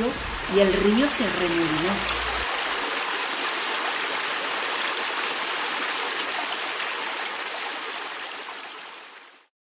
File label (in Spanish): ruidosos truenos, Trabalenguas; RUIDOSOS TRUENOS RODABAN POR UNA ROCOSA REGIÓN.; cuentos y rimas